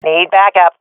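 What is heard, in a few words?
request backup, swat female; vo; Counter Strike Model Pack; CS:GO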